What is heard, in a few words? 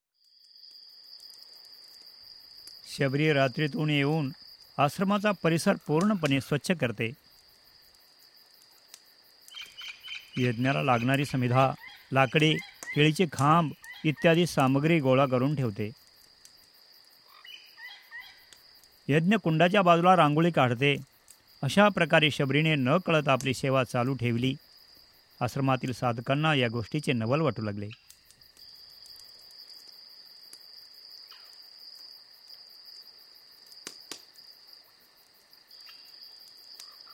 Narration; Shabri Drama; Gopals Studio